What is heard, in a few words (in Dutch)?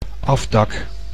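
"afdak", Dutch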